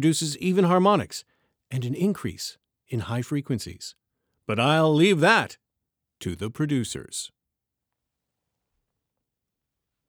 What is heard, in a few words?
Raw Audio Sample Voice Over HPF